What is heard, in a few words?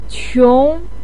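"qiong", Mandarin pinyin, second tone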